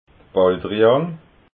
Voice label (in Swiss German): "baldrian", alsace